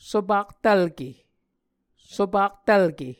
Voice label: "sopaktvlke", Muscogee